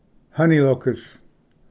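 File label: "honey locust", English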